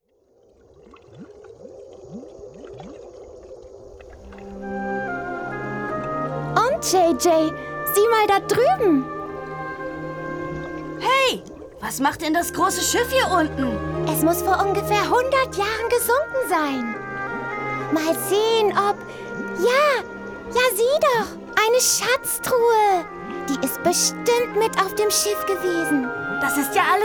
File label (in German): jungenstimme